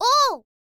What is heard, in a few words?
girl